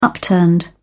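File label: "upturned", British English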